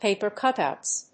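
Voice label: "paper cutouts", English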